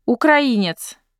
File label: Ukrainian Male